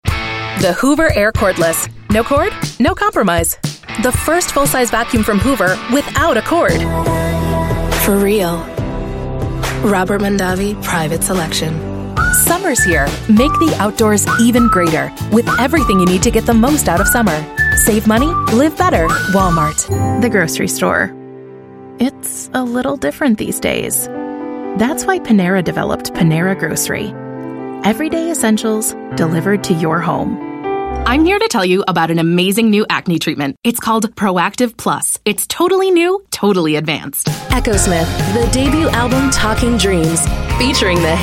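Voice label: Commercial, voice over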